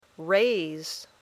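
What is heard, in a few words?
"raise", English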